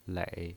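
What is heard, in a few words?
"lei", Mandarin Chinese, third tone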